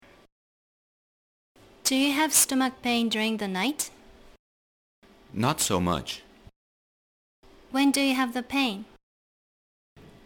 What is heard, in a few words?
Conversation